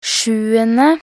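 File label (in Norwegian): "sjuende", Norwegian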